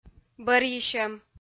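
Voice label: "бӧрйысьӧм", Komi, female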